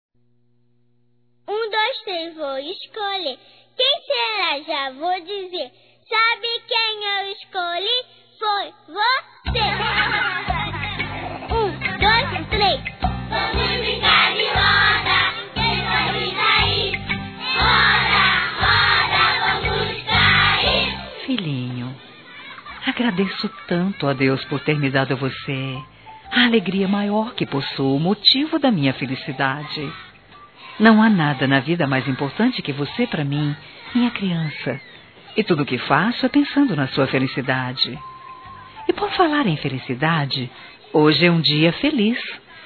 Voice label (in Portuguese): Aniversário Filho Feminino; Aniversário Infantil; Telemensagens